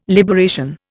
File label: "liberation", Indian